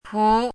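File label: "pú", Chinese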